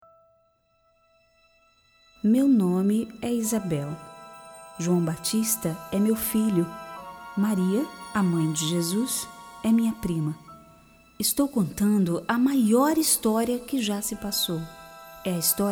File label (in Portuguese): Narracao